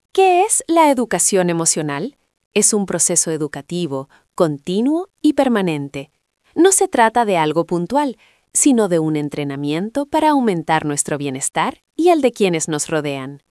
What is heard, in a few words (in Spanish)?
locucion